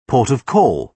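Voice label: "port of call", British English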